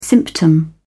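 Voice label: "symptom", English, British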